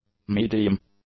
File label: "medium", english; adjectives